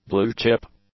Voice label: "blue-chip", English; adjectives

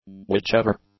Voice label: "whichever", english; adjectives

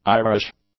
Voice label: "Irish", english; adjectives

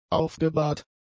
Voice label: "aufgebahrt", German; adjectives

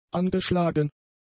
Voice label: "angeschlagen", German; adjectives

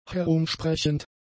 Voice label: "herumsprechend", German; adjectives

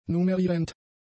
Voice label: "nummerierend", German; adjectives

